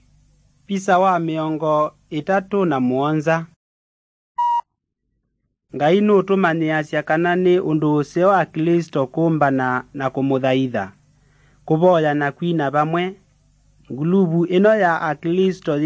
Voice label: kikamba drama; good news; bible